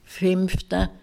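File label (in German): "fìmfta" Alsatian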